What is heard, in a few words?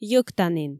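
"йӧктанін", Komi, female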